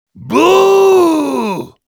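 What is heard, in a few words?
jeers, German; Boooooooo!; Heavy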